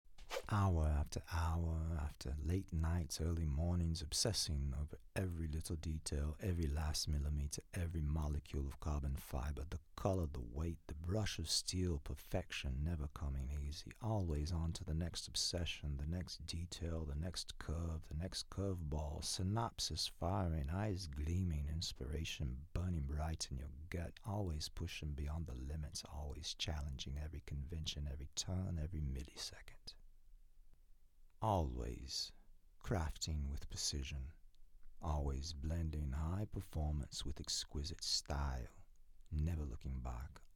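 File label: British English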